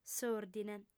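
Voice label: "s'òrdine", lingua sarda, female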